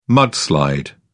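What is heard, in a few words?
"mudslide", British English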